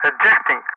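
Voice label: "ejecting", English; Ka-50; Wingman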